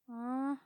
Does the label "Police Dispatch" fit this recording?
No